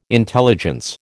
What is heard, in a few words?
"intelligence", American